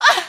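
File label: yeah, female; hl2; Source engine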